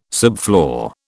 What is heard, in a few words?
"subfloor", British